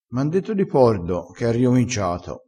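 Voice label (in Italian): "bevicchia", vocabolario dialettale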